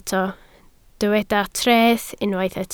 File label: "treth", Welsh